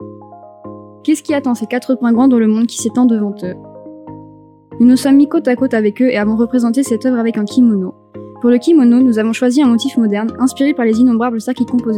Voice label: French